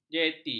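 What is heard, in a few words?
"jeti", Malay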